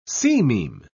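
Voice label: "sememe", English, British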